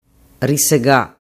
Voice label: "risega", dialettu